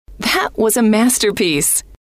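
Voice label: that was a masterpiece, female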